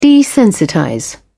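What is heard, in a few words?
"desensitize", British English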